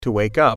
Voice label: "wakeup", English